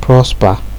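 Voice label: "propser", Nigerian Pidgin, male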